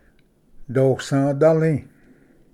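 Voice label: "dosvdali", Cherokee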